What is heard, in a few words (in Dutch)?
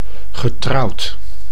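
"getrouwd" Dutch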